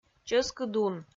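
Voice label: "чӧскыд ун", Komi, female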